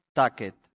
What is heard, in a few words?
"tacet", latin; santillana